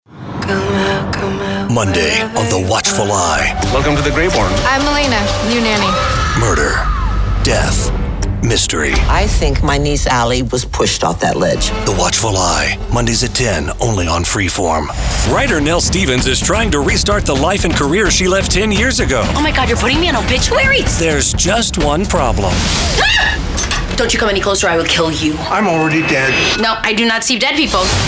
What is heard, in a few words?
Promo